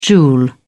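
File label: "joule", British English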